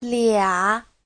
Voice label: "lia3", chinese